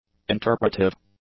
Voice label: "interpretive", english